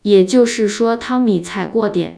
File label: synthesized; fast speech2; AISHELL3